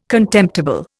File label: "contemptible", Indian